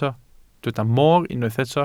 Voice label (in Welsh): "moor", Welsh